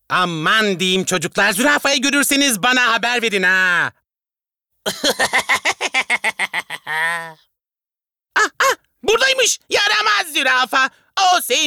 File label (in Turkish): animasyon